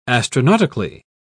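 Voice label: "astronautically", British English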